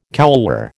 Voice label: "couloir", American

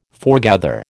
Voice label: "forgather", American

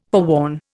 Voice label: "forewarn", Indian

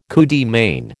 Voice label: "coup de main", American

